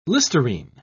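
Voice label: "listerine", British English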